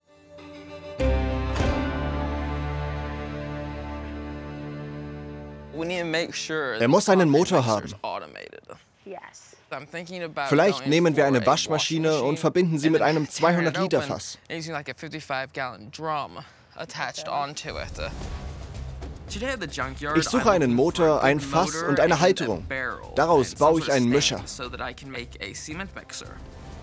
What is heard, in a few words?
Voice Over